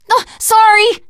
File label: sorry, teen girl voice; survivor voice